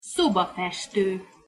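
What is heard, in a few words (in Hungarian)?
"szobafesto", Hungarian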